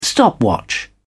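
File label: "stopwatch", English, British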